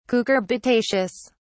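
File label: "cucurbitaceous", British English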